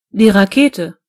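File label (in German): "rocket", German; gcompris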